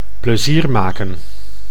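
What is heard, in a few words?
"plezier maken", Dutch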